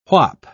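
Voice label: "whap", British English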